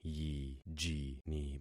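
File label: "yijini", concatenated syllables; Affixing experiment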